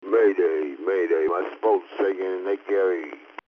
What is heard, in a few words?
Distress call